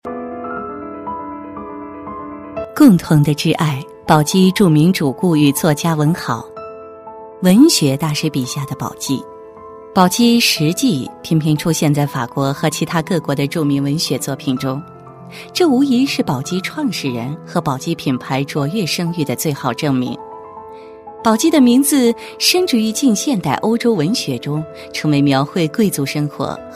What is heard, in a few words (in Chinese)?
女 专题, 国语女声配音; 宝玑; 全能配音